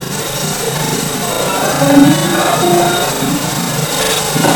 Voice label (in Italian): probabile voce femminile di origine non umana sembrerebbe dire conta tu